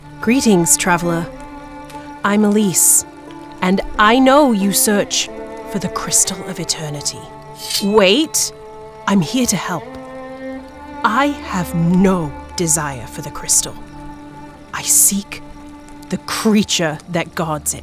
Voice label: women video games